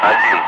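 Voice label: Russian speech, Ka-50 Wingman; Digits; DCS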